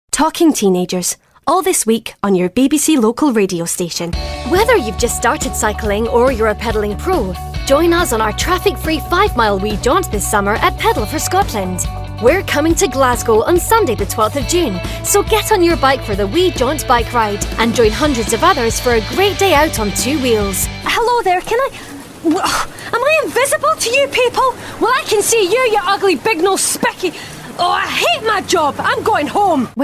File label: english british